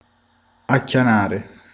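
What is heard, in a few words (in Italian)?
"salire", Italian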